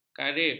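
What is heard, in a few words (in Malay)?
"karib", Malay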